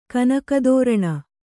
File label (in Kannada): "ಕನಕದೋರಣ", Kannada